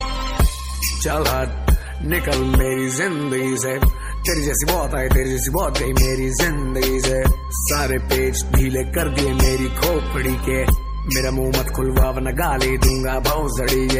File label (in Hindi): Chal Hat Attitude